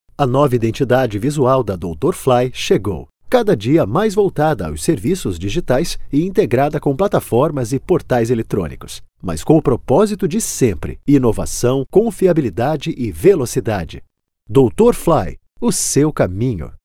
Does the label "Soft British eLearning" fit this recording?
No